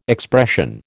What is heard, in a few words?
"expression", American